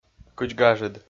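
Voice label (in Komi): "кыдз гажыд", Komi, male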